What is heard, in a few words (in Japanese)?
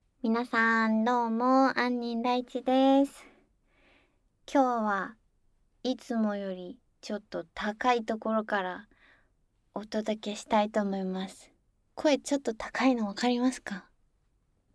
フリートーク